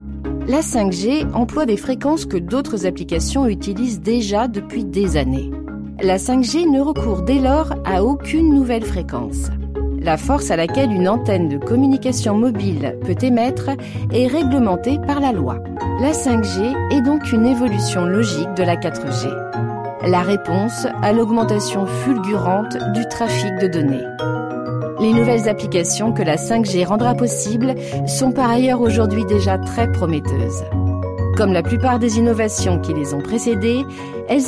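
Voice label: French, Neutral; Fremdtake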